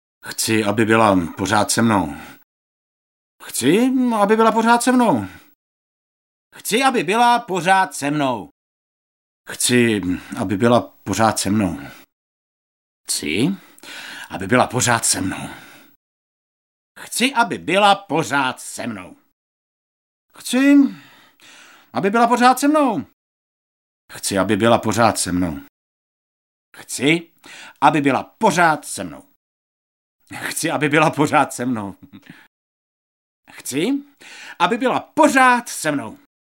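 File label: Male Czech commercial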